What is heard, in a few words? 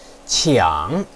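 "qiang", Mandarin Chinese, third tone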